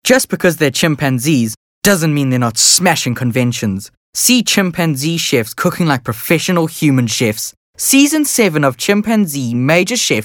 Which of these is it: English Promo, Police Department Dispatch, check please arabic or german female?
English Promo